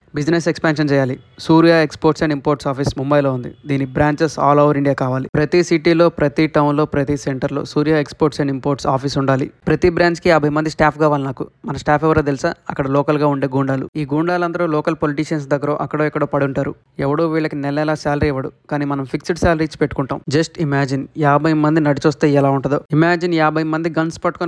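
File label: E-learning Telugu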